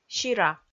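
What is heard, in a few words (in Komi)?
"сира", Komi, female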